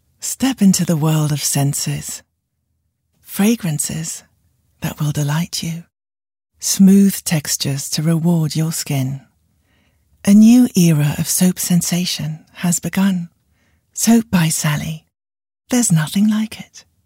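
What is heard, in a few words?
British English; GB UK; Soap By Sally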